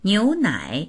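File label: "niu2nai3", Mandarin Chinese; 牛奶; 牛乳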